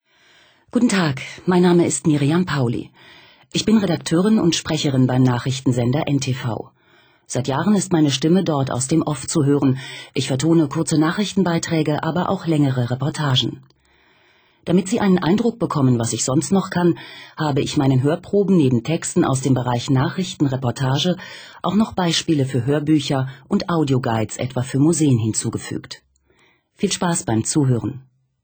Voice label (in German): vorstellung, frauen; Sprecherinnen, Weibliche